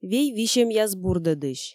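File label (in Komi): вей висьӧмъяс бурдӧдысь, Komi, female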